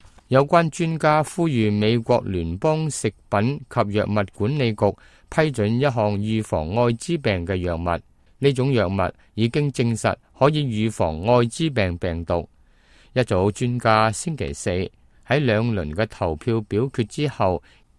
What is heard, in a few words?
cantonese, news